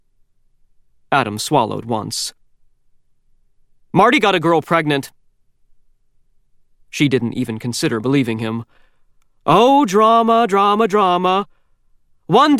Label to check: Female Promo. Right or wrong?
Wrong